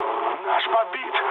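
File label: Russian aircraft destroyed mood low, speech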